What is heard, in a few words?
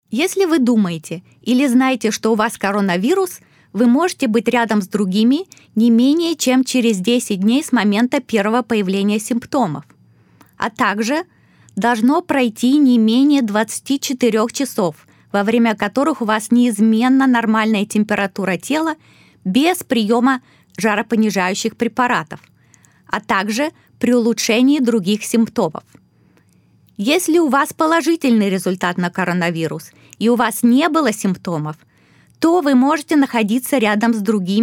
PSA Russian I Think Know Had COVID 19; CDC Covid; coronavirus 2019 ncov